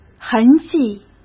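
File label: "痕迹", Mandarin Chinese; hsk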